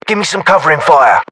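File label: order action cover me, British; localized english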